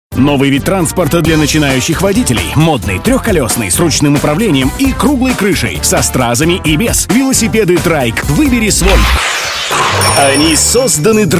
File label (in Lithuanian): Rusiškas balsas, vyras